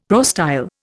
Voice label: "prostyle", Indian; Dictionary